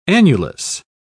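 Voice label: "annulus", British English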